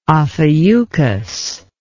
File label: "ophiuchus", English